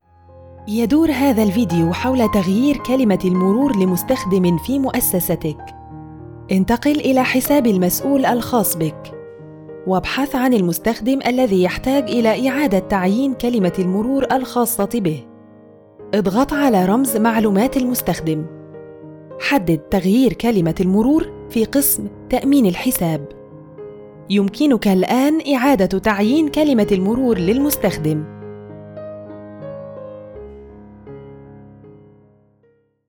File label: e-learning